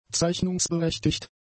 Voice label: "zeichnungsberechtigt", German; adjectives